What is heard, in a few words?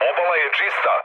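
Serbian chat; War Thunder; speech srb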